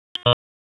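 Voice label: FIRE Operations, p25; FIRE OPS 3; Wake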